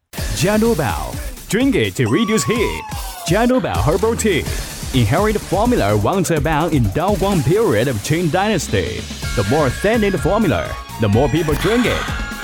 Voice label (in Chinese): 男英 广告 食品饮料 激情; 外语; 英语; 加多宝